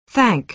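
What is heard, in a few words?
"thank", American English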